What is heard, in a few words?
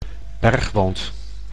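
"bergwand", Dutch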